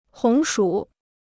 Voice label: "红薯", Chinese

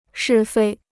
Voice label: "是非", Chinese

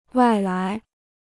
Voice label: "外来", Chinese

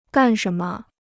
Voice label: "干什么", Chinese

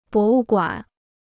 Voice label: "博物馆", Chinese

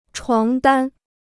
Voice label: "床单", Chinese

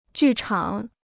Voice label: "剧场", Chinese

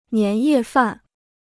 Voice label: "年夜饭", Chinese

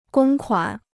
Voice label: "公款", Chinese

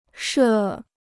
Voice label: "设", Chinese

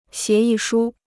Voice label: "协议书", Chinese

